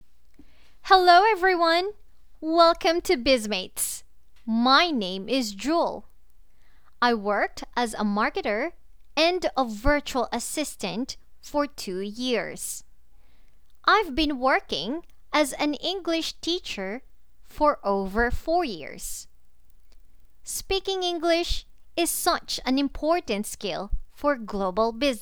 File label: trainer voice